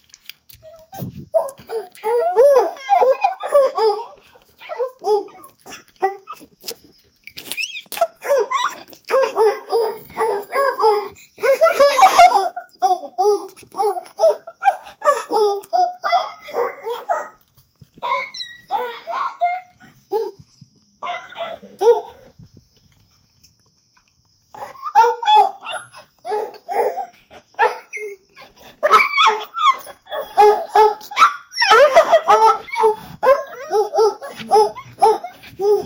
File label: a 20 year old man playin; human interactions